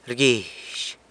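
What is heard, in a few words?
"rguizh", pays, San Lucas Quiaviní Zapotec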